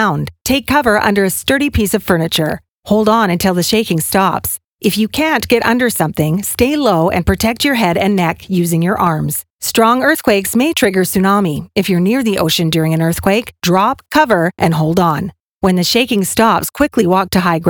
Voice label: ShakeOut 60sec Drill Broadcast English No Sound Effects; British Columbia